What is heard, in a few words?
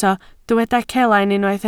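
"celain" Welsh, spoken word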